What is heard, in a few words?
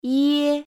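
final "ie", Chinese pinyin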